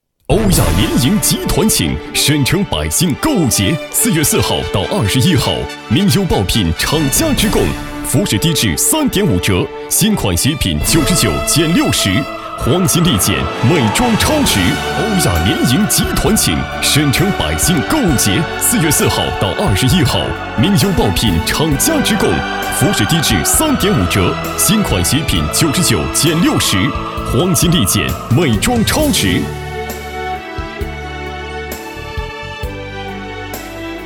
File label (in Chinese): 男 促销 电促效果; 欧亚